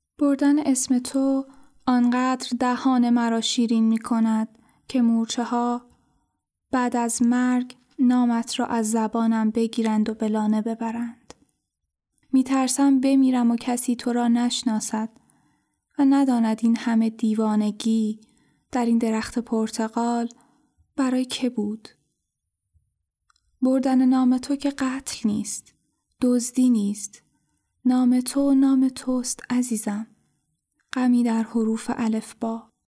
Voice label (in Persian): نمونه صدای دوم شعر; گوینده